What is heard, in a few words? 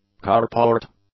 "carport", English; nouns